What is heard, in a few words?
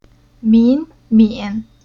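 "Min", Chinese syllable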